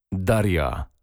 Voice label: polnische maedchennamen daria

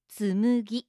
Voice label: "Tsumugi", japanische maedchennamen

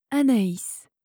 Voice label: "anais", franzoesische maedchennamen

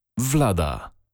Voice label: polnische maedchennamen wlada

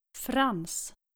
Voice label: "frans", nordische jungennamen

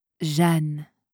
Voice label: "jeanne", franzoesische maedchennamen

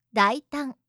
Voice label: "daitan", japanische maedchennamen

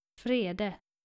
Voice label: "frede", nordische jungennamen

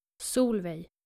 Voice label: "solveig", skandinavische maedchennamen

